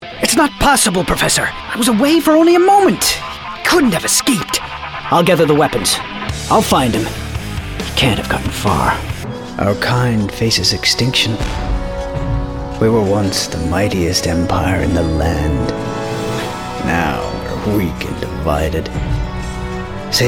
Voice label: Men Animation

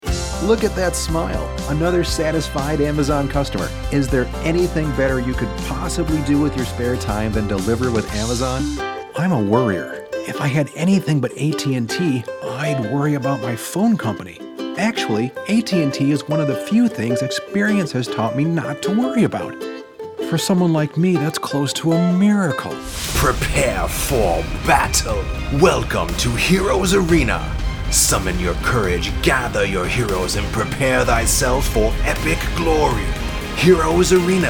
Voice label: Commercial, Men

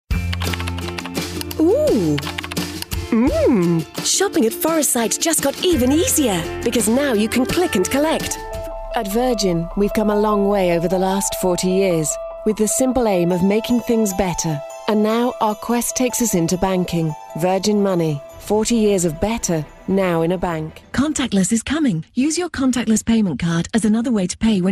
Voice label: British; Foreign Languages

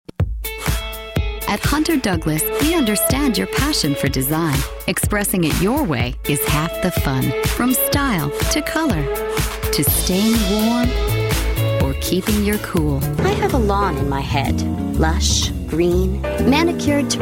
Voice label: Women Commercial

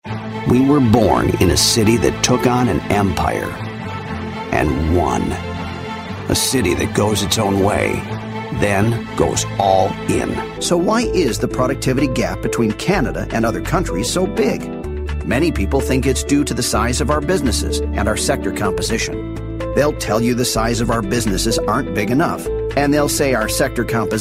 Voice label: Men Narration

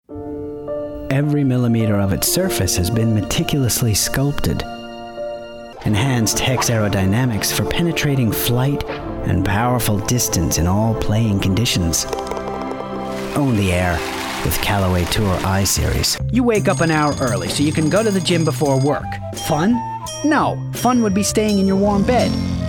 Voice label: Men Commercial

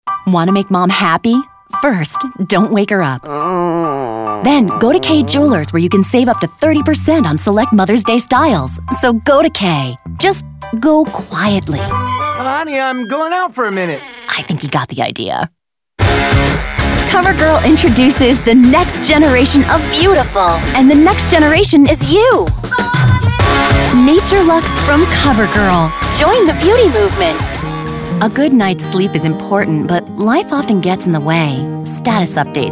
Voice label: Women Commercial

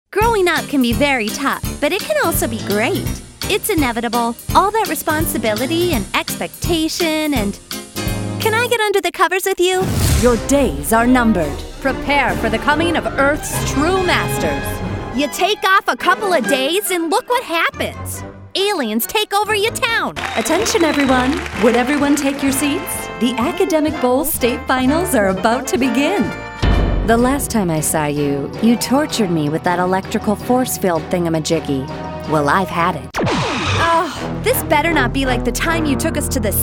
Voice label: Animation, Women

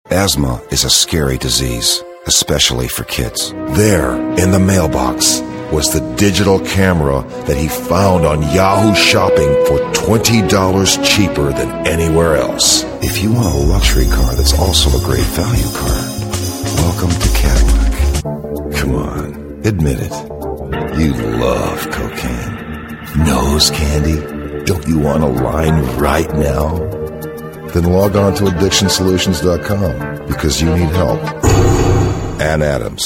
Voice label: Men Commercial

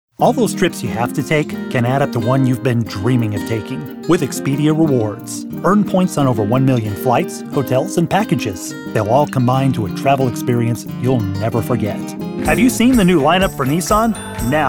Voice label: commercial

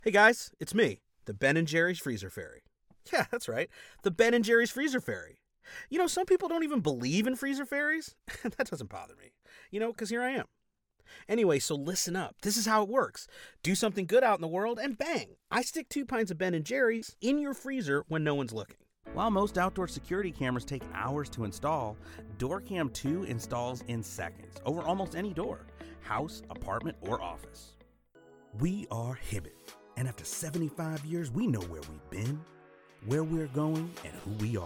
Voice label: Men Commercial

